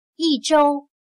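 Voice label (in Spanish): "一周", chino mandarin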